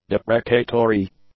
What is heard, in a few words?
"deprecatory", english; adjectives